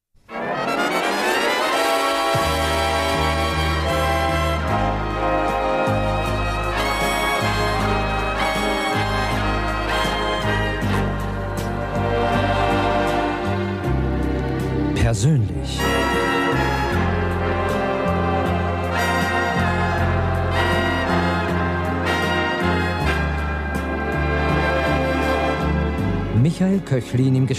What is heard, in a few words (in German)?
Basler Stimmen, Persoenlich